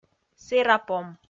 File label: "сэрапом", Komi